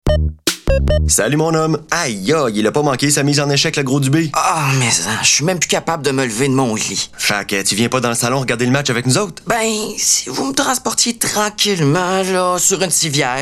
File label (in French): Publicité; Bell